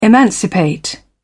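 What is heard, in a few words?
"emancipate", British English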